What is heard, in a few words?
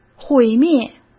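"毁灭", Mandarin Chinese; chinese flashcards; hsk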